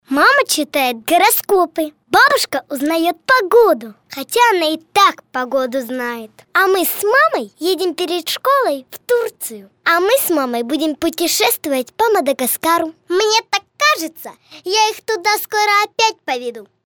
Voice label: RUS